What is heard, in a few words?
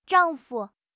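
"zhang4 fu5", Chinese; HSK; HSK2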